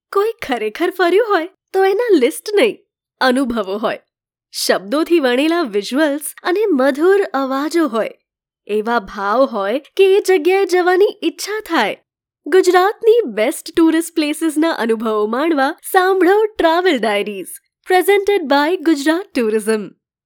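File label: Gujarati Female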